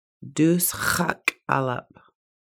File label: "skipper", Lushootseed